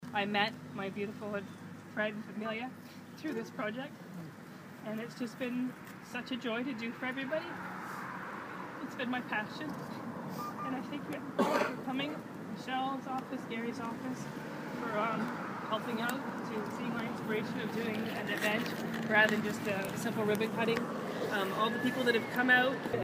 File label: Emotional Speech